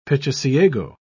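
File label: "pichiciego", British English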